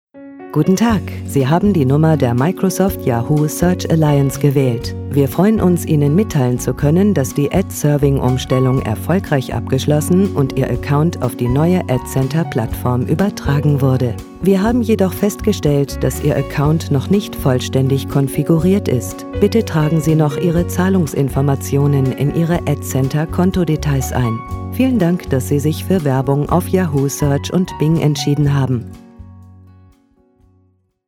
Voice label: telefon; microsoft yahoo

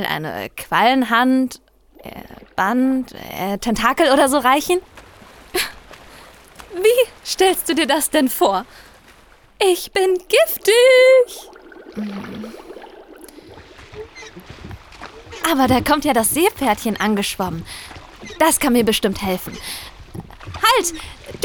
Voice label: hoerspiel szene plastik; kleine fische grosse welle

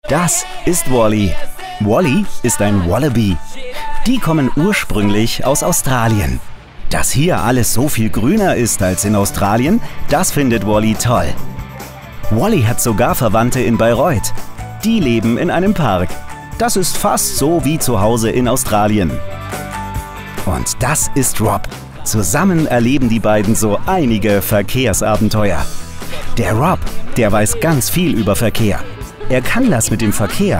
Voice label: trailer fuer kinder freundlich

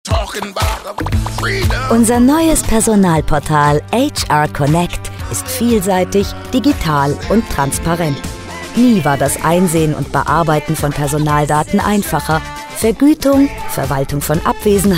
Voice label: produktfilm; Sprecher in Hamburg; Muttersprache Deutsch; hr connect

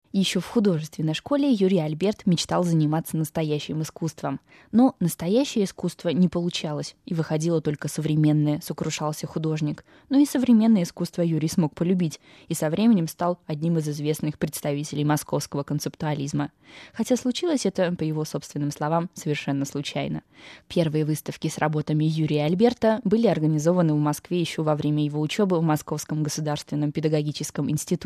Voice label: stimmprobe rus

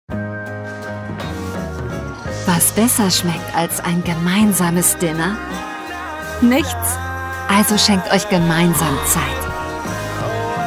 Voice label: werbekampagne warm narrativ; mydays

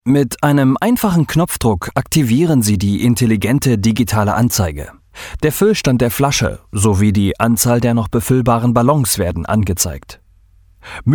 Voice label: sprecherdemo e-learning mitarbeiterschulung sachlich; Sprecher in Leipzig; Muttersprache Deutsch; ballongas

